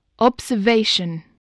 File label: "observation", voice, spelling